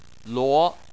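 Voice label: "luo", Chinese, second tone; Chinese Surnames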